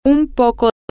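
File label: a Little, Spanish, female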